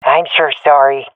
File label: sorry, swat fem; vo; Counter Strike Model Pack; CS:GO